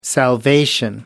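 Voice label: "salvation", British English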